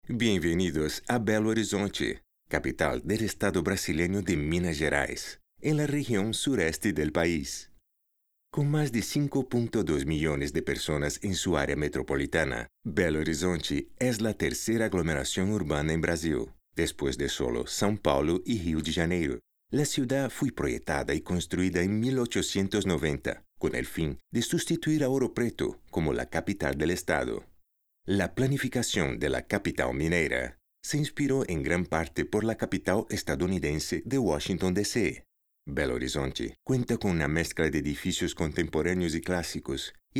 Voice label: male brazilian portuguese neutral spanish latam with brazilian portuguese